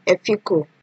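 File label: "efiko", Nigerian Pidgin, female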